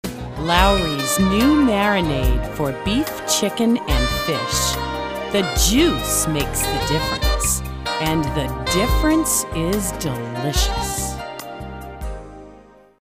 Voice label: audio promo spot; Lawrys